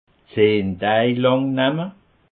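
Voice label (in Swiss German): "zehndaanamme", Alsatian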